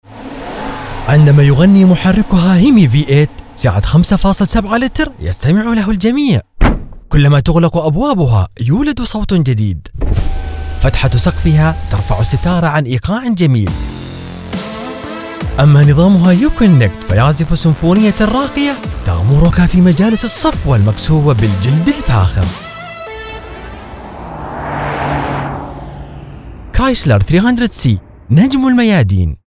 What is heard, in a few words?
Arabic Classic; Crysler